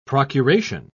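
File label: "procuration", English, British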